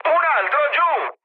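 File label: Italian player killed enemy mood high, speech; War Thunder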